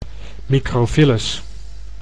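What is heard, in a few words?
"microvillus", Dutch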